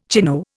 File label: "chino", Indian